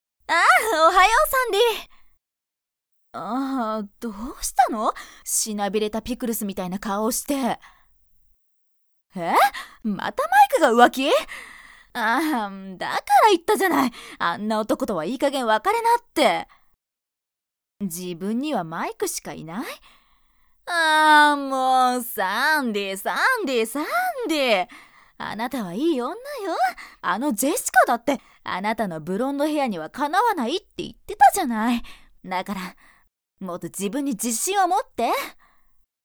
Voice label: アメリカンハイスクール女子学生

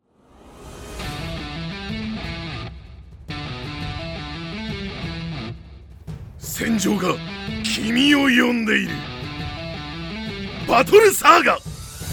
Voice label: 熱め ゲーム CM

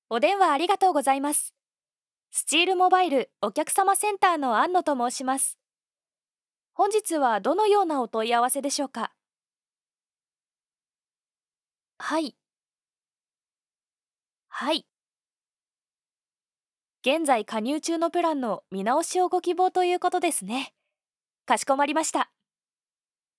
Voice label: コールセンター

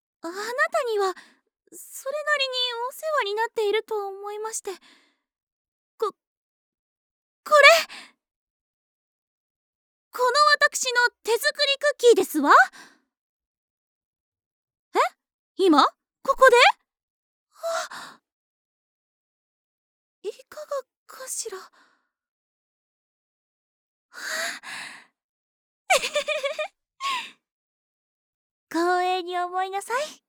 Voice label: ツンデレお嬢様

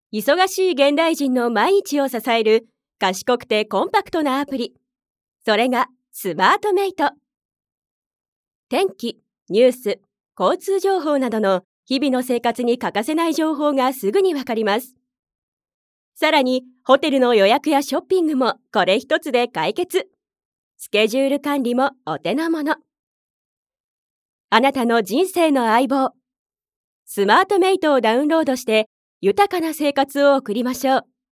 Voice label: 明るめ

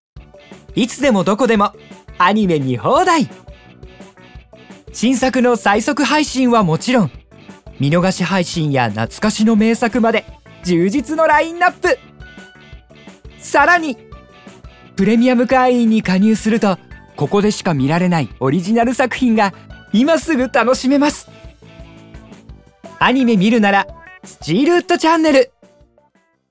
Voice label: CMナレーション

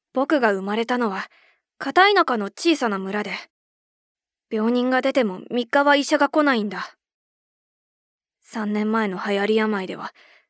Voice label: 大人びた少年

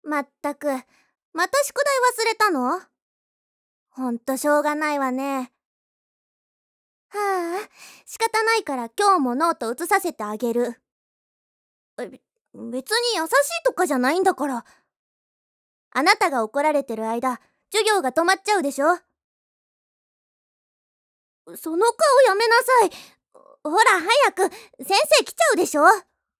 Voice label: ツンデレ女子

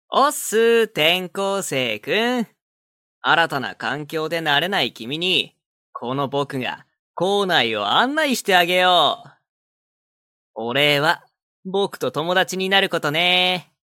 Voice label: 明るいクラスメイト

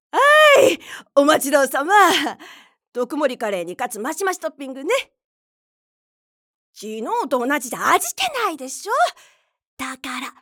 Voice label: おばちゃん

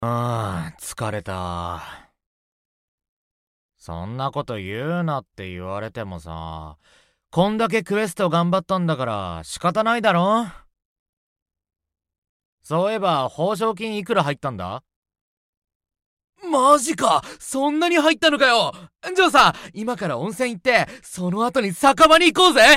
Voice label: 陽気

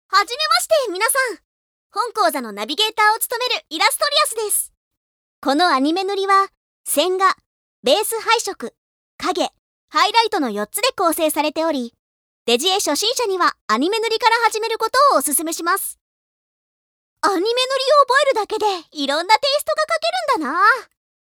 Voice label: ナレーション

